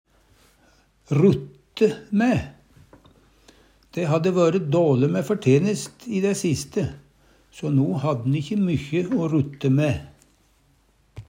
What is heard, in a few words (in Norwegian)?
"rutte mae", Numedalsmål